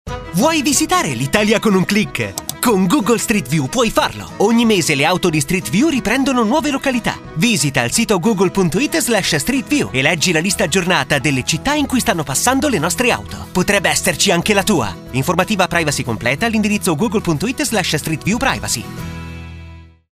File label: Italian; Google Streetview